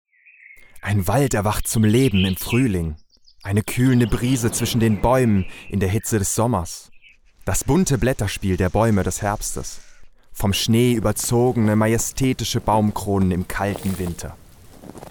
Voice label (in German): Werbung; Erlebnis Akademie